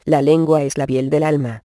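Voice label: proverb, Spanish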